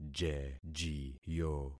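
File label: "jejiyo", concatenated syllables; Affixing experiment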